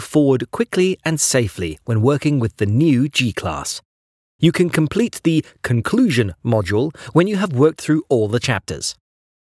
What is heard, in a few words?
English British e-learning